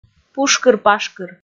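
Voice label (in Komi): "пушкыр-пашкыр", Komi, female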